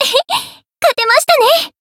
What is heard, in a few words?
Voice win jp